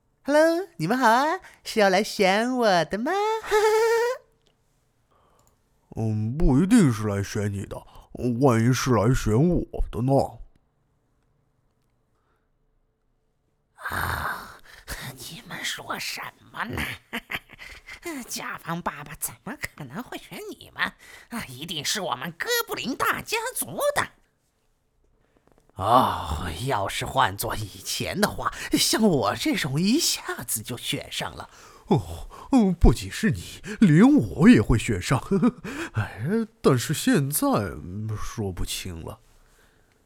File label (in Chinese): 多角色